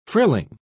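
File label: "frilling", British English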